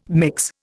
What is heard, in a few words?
"mix", Indian